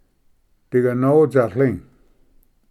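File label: "diganotsatli", Cherokee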